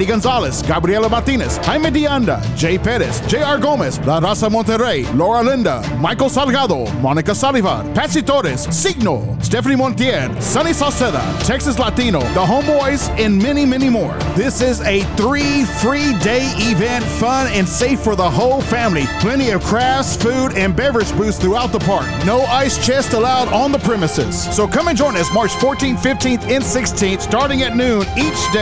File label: ARTIST MENTIONS RADIO SPOT; TMA FF